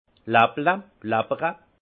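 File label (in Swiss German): "lapple", alsace Haut-Rhin; vin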